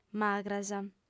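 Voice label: "magras", female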